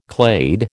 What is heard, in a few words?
"clade", American